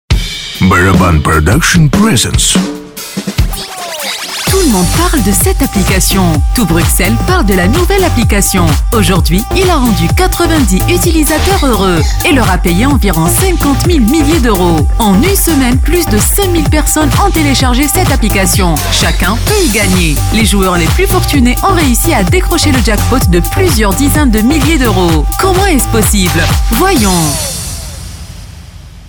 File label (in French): French Language; app for Casino